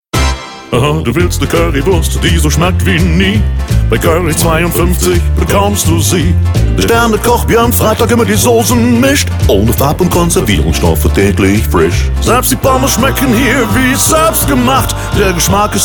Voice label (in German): Funkspot; Curry 52